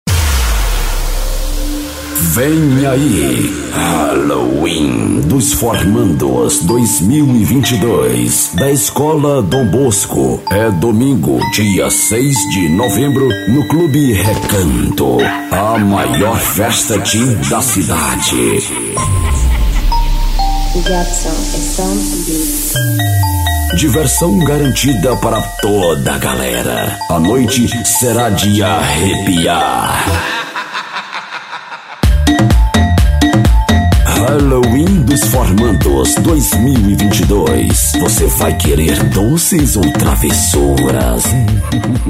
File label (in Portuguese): locutor; chamadas; HALLOEEW DOS FORMANDOS